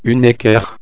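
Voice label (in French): "equerre", French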